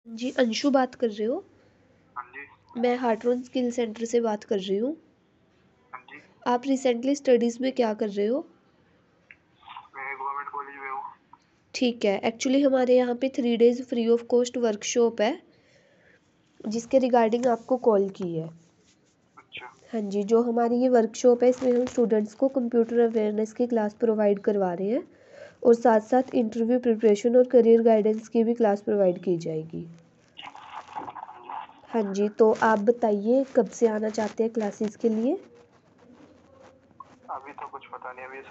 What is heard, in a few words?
call recording